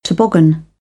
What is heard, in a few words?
"toboggan", British English